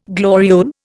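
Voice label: "glorious", Indian; Dictionary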